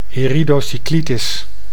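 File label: "iridocyclitis", Dutch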